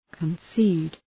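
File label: "concede", English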